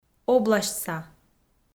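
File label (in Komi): "обласьтса", Komi, female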